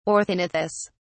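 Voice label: "orthognathous", British English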